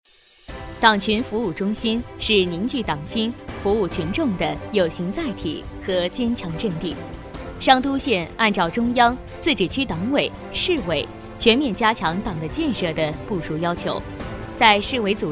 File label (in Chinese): 女, 专题, 党建, 年轻积极; 明亮柔和; 年轻稳重